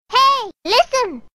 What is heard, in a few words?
"Hey Listen", notifications